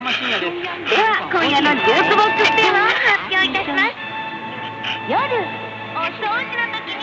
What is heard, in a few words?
CNR-5 765KHz